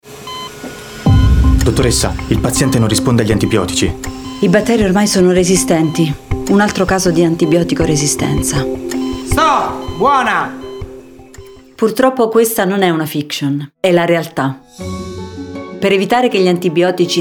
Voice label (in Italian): Spot Radio; Antibiotici 2022